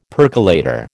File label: "percolator", American